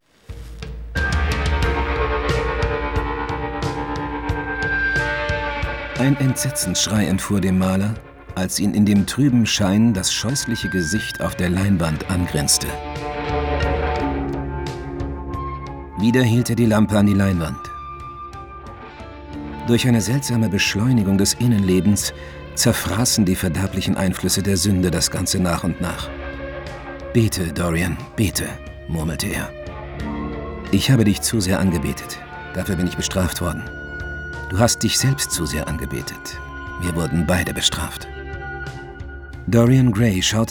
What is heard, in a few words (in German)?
Kommentar, maenner; arte; Dorian Gray